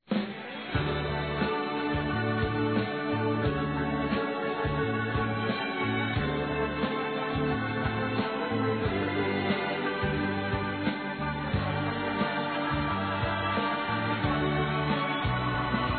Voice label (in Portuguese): Aniversário Neta Feminino; Aniversários; Aniv Familiar